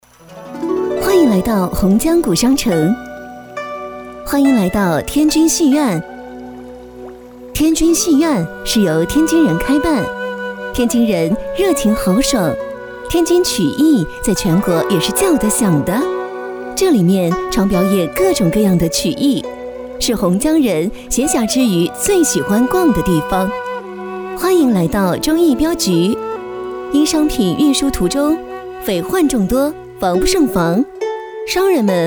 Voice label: 女 专题 旅游景点介绍讲解

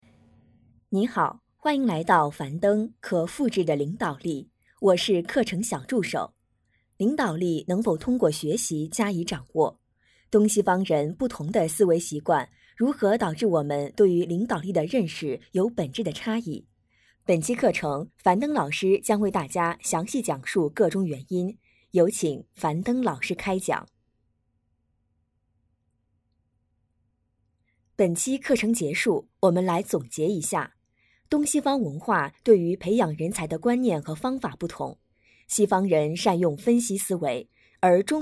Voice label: 女 专题 活动开头结束语

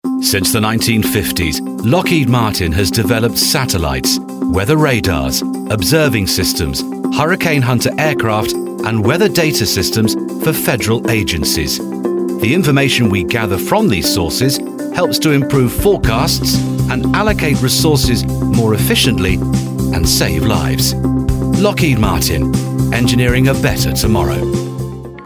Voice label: 外籍, 航空科技公司宣传片; 洛克希德·马丁; 英式英语配音; 一个富有内涵、热情的英国人

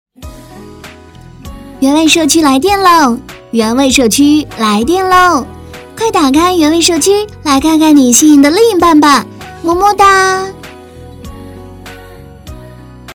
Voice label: 女 彩铃 原味社区

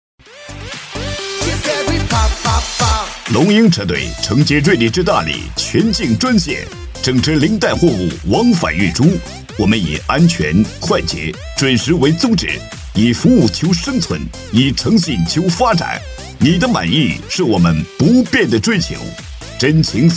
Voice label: 男, 促销, 运输; 车队

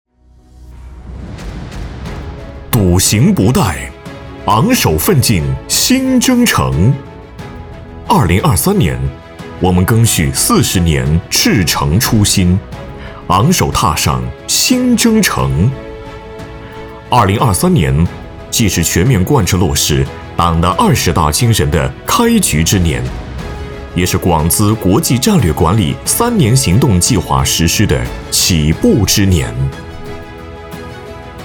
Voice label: 男 专题宣传 大气力度 总结回顾

